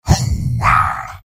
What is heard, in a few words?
soldier m positive vocalization; tf2; garrysmod; mvm